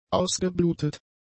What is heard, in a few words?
"ausgeblutet", German; adjectives